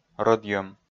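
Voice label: "рӧдйӧм", Komi, male; kpv audio dict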